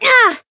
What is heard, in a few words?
Voice damaged; suzette